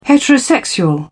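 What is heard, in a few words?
"heterosexual", English, British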